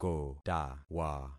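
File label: "kotawa", concatenated syllables; Affixing experiment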